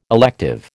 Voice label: "elective", American